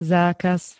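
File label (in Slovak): "zákaz", Slovak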